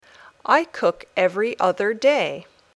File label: Dictation